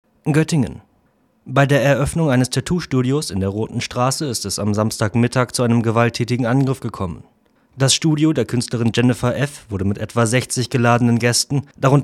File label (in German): news